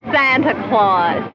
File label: santa claus female; Voice; xmas